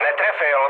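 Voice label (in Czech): Czech bomb failure, mood low; War Thunder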